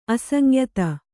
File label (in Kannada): "ಅಸಂಯುತ", Kannada